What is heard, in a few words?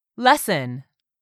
"lesson", English; 3000 words